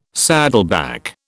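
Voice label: "saddleback", British English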